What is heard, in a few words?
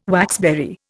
"waxberry", Indian